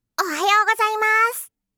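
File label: "ohayou gozaimasu", Japanese; aisatsu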